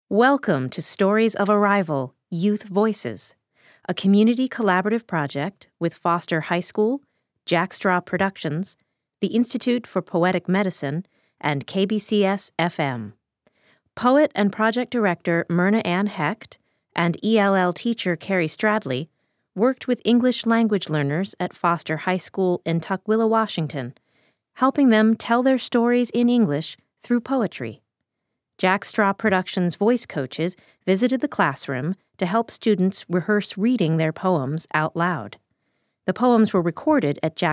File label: Opening Narration